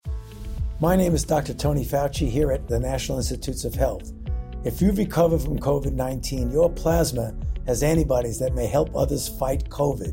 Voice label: Radio PSA; Dr Fauci; Plasma Donation